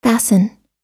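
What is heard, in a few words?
"fasten", English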